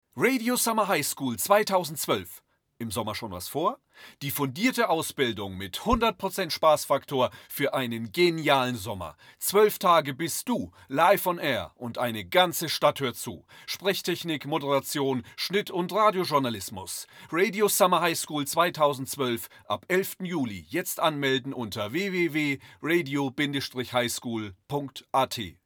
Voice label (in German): Hoerprobe Werbung Radio; Summer Highschool